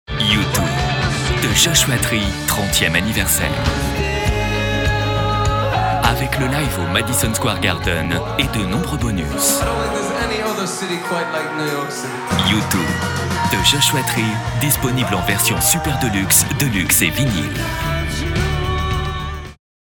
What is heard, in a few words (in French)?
promo droit, Voix off disque